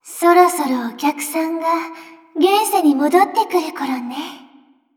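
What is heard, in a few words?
victory, Japanese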